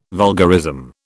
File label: "vulgarism", British